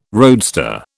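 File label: "roadster", British English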